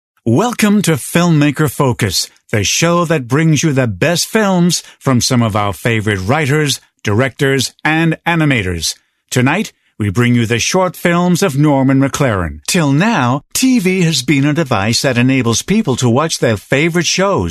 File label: Natural Promo Read